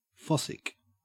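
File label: "fossick" Australian English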